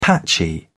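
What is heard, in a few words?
"patchy", British English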